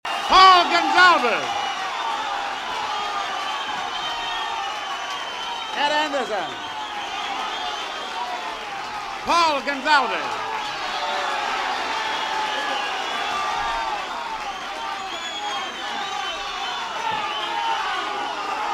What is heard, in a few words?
Announcements, Pandemonium